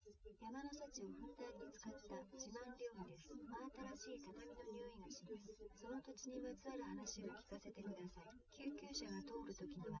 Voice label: female, live rec; SiSEC08; SiSEC underdetermined

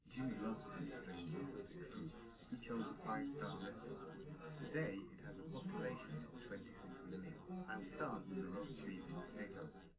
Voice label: male live rec 250ms 1m; underdetermined; SiSEC11

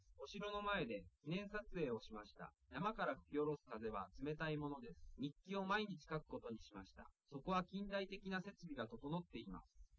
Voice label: male liverec 130ms 1m sim

